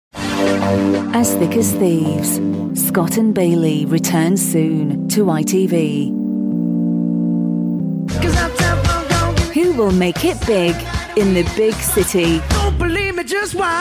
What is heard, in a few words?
english tv promo